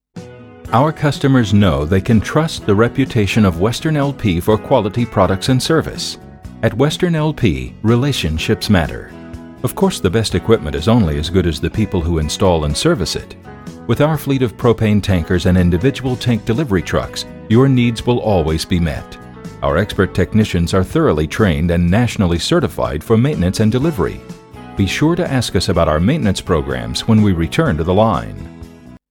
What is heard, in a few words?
propane company, male voices; audio on hold